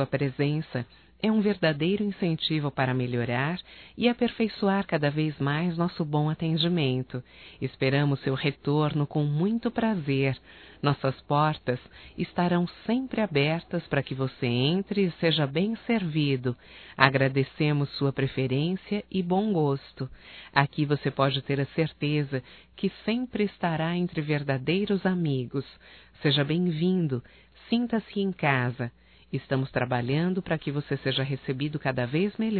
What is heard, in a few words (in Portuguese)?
CLIENTE EMPRESáRIO, Telemensagens, fem; Profissionais; Telemensagens 2017